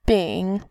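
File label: "bin", Mandarin Chinese, third tone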